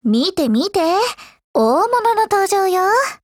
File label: HELLO JP